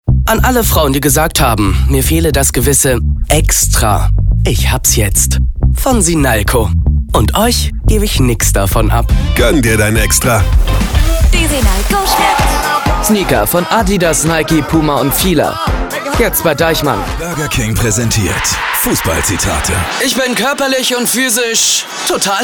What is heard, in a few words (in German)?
Werbung Mix